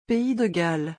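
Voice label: Pays de Galles pronunciation, french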